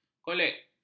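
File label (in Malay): "kolek", Malay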